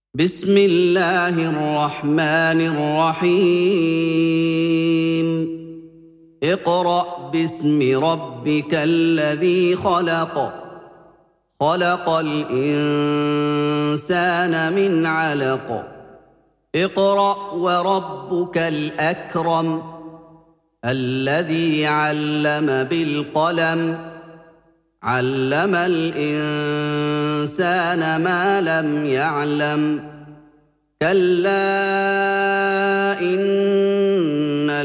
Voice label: iqrae arab, koran